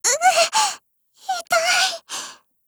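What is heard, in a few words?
BREAK, Japanese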